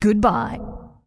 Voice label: Undead Female Warrior NPC Farewell